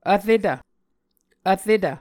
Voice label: "vretv", muscogee

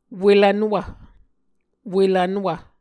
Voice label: "welvnwv", Muscogee

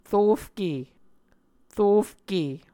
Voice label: "rofke", muscogee

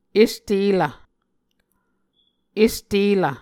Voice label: "est elv", Muscogee

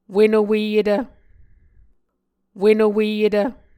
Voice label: "wenoweyetv", Muscogee

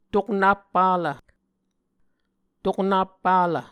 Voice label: "toknap palv", Muscogee

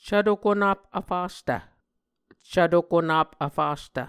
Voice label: "cvto konap vfastv", Muscogee

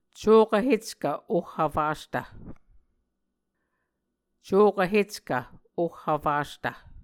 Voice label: "cokv heckv oh vfastv", Muscogee; Mvskoke Words with Voicing Consonants; A Dictionary of Creek/Muskogee; SIL International

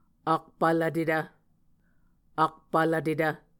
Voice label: "akpvlvtetv", Muscogee

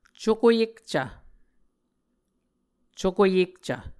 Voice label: "cuko yekcv", Muscogee; Mvskoke Words with Voicing Consonants; Martin, Jack B., and Margaret McKane Mauldin. 2023. A Dictionary of Creek/Muskogee; SIL International

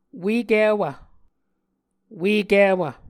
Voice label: "uekiwv", Muscogee

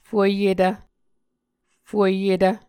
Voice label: "fueyetv", Muscogee

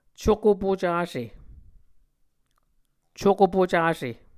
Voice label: "cuko pucase", Muscogee; Mvskoke Words with Voicing Consonants; A Dictionary of Creek/Muskogee; SIL International; Onvkv Ohhvyayices

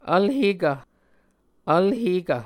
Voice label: "vlhekv", Muscogee

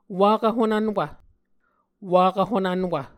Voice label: "wakv honvnwv", Muscogee

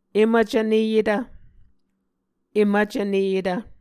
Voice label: "em vcvneyetv", Muscogee

